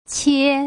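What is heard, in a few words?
"qie", Mandarin Chinese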